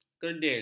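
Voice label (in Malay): "kerdil", Malay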